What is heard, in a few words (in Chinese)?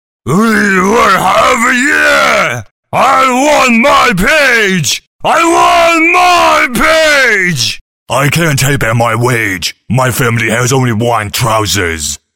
男英 外语 国内英语; 绿巨人